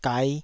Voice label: "gai5", Taiwanese syllable

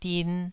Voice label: "din2", Taiwanese

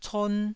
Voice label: "con1", Taiwanese

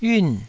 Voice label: "yiun4", Taiwanese

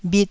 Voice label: "bhit1", taiwanese

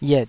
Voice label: "yet3", Taiwanese

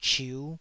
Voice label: "chiu5", taiwanese

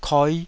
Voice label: "koi5", taiwanese syllable

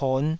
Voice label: "pon5", taiwanese

